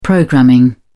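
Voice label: "programming", British English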